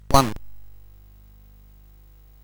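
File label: Bouncy Balls speech, MOS 8580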